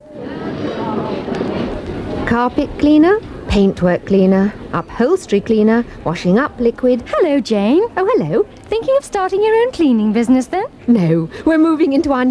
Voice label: Radio Hallam Advert; Stardops - Cleaning Business

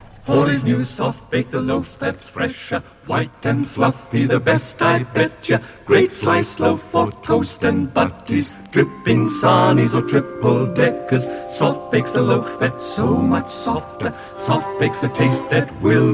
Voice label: Radio Advert; Radio Hallam; Hawleys Soft Bake

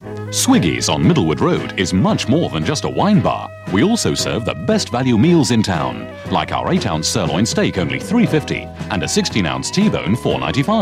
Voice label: Radio Advert; Radio Hallam; Swiggies

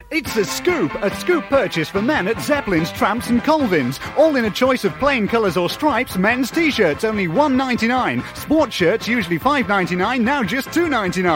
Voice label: Radio Advert; Radio Hallam

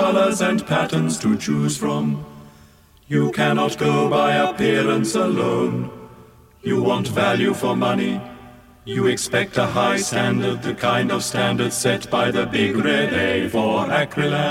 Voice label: Radio Advert, Carpet Centre; Radio Hallam; Eckington

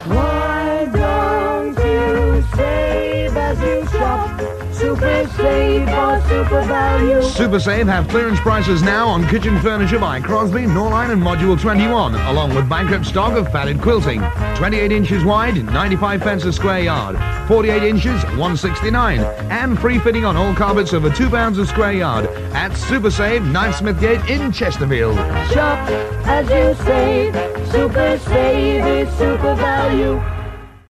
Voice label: Radio Hallam Advert; Supasave

